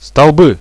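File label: pillars, radio, Russian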